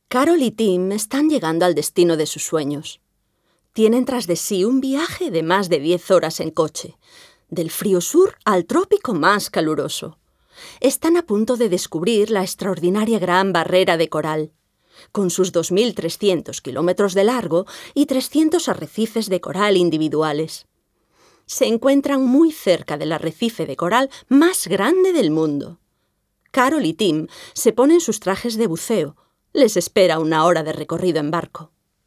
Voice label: Castilian Spanish; VOICES